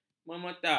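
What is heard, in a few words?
"memeta", Malay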